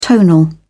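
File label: "tonal", British English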